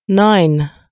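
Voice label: "nine", English; numeri in inglese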